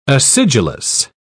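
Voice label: "acidulous", British English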